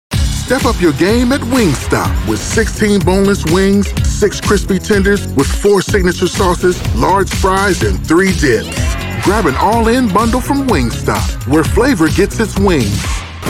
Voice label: Commercials; Nuemann TLM 103 mic; Audio Technica AT 4033a mic; Apollo X4 Thunderbolt